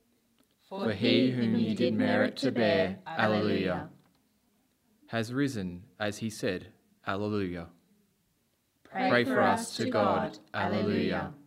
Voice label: spoken regina prayer with bells; regina coeli